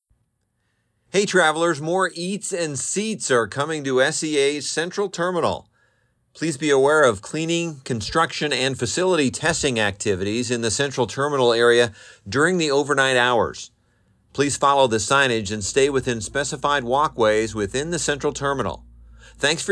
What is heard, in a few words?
Central Terminal Overhead Announcement; portofseattle; SEA's Central Terminal; Hey travelers, more eats and seats are coming to SEA's Central Terminal.